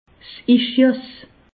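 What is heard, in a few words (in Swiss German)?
"ischias", Alsatian; medecine; sciatique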